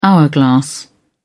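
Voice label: "hourglass", British English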